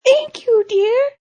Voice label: Grandma

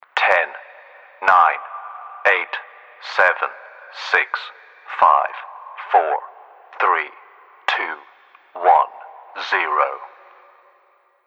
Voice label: Army Facility Male Countdown; Army Facility - Male Countdown V1

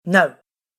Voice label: "No" Sharp Female Voice; Recorded Voice Sound Effects

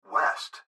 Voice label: Gps Voice, Male; Speaker Adult Male; Recorded Voice

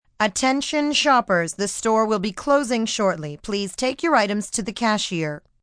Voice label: Store Close, PA, announcements, female; VoiceBox

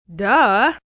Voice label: "Duh" Teen, child, boy, teenager; Kids

